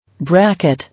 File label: "Bracket", English